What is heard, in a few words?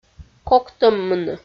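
"коктӧммыны" Komi, female; audio dict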